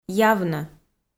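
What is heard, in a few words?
"явнӧ", Komi, female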